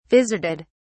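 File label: "vizarded", English, British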